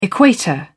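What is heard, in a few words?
"equator", British English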